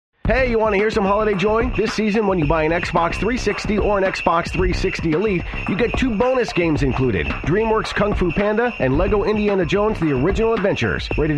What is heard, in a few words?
commercial, commercial men